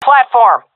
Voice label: loc platform, seal fem; vo; Counter Strike Model Pack; CS:GO

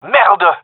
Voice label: taking fire friendly, gendermerie male

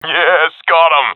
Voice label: i killed enemy, professional; vo; Counter Strike Model Pack; CS:GO

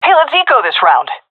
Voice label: request eco, seal fem; vo; agents; Source Model Packs; Counter Strike Model Pack; CS2

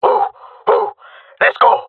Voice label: round start, male; player vo; Counter Strike Model Pack; CS:GO

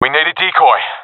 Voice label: request decoy, professional; vo; Counter Strike Model Pack; CS:GO

